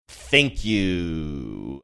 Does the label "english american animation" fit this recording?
No